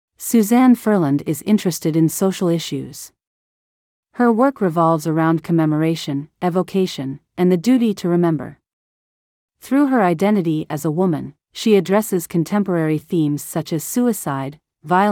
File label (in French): anglais